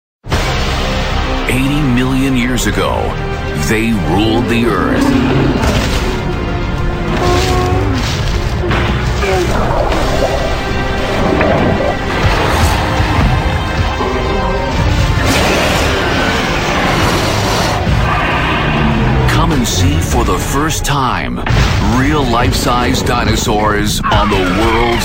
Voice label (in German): TV Werbespots Jugendfrei; NATIVE PROFISPRECHER